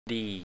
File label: "di:" Thai, 'to be good; well, quite'; ดี